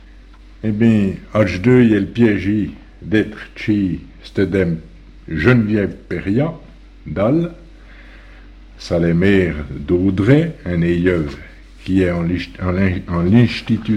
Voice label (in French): parler Patois